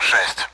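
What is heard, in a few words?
Speech Sound Russian Common AWACS Digits; DCS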